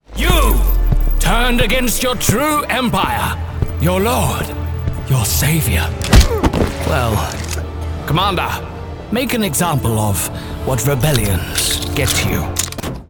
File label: Heartless Officer, French Voice Actor